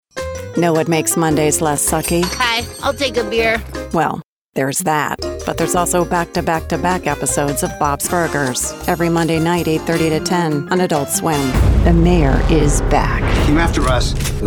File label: promo women